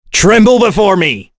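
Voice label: Vox Skill, voices heroes English; Kain; kingsraid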